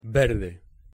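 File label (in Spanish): "verde" Spanish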